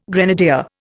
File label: "grenadier", Indian